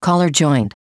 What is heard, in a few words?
announce new caller